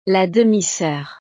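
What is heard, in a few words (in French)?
"la demi soeur", French; familia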